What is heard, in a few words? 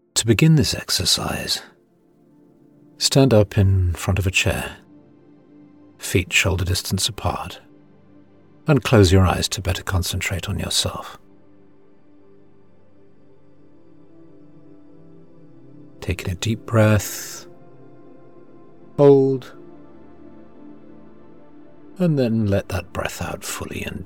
Sophrology Rainbow Meditation with Music